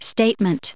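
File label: "statement", US English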